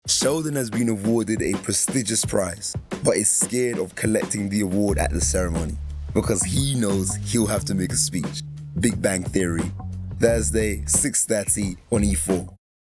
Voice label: Continuity

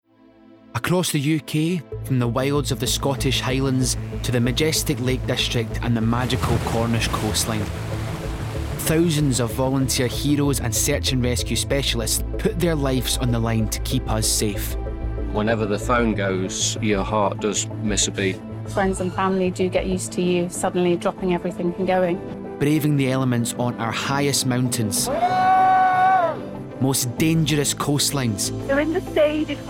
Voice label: Documentary

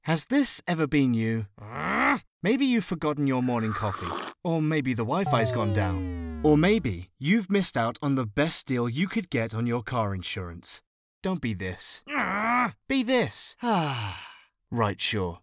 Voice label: Commercial

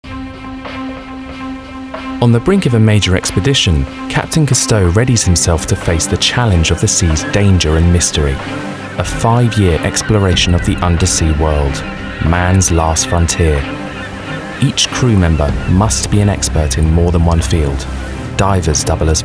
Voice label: Documentary

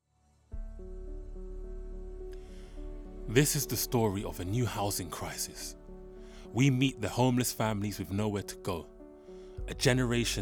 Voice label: DOCUMENTARY

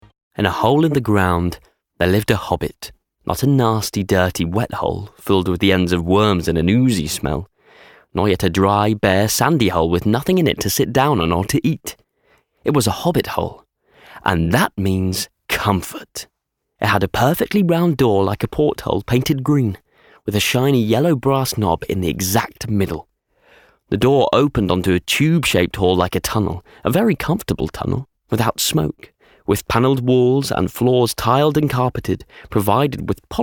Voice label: Audiobook

